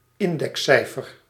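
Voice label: "indexcijfer", Dutch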